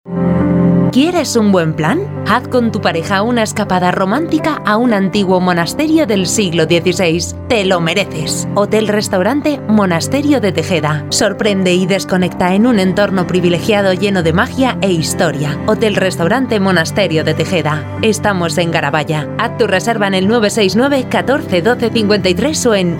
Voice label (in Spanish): MUJER